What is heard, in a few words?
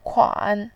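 "kuan3", Mandarin Chinese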